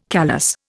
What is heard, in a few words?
"callous", Indian